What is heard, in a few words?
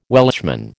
"Welshman", American